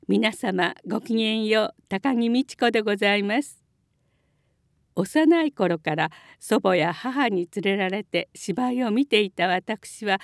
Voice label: voice, commentator